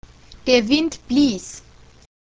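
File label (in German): "blasen" German; der Wind bläst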